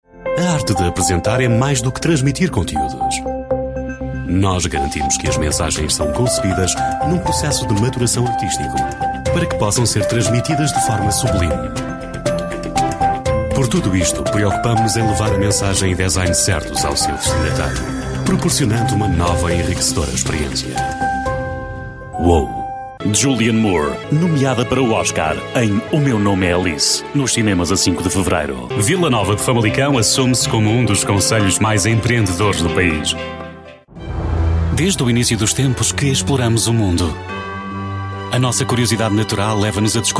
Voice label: Portuguese voice commercial, Articulate Professional